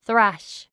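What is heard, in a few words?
"thrash", English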